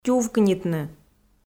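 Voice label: "тювкнитны", Komi, female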